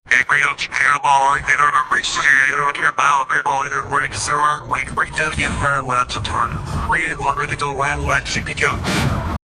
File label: man talking on the news, disaster